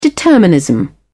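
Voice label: "determinism", British English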